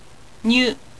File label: "nyu", Japanese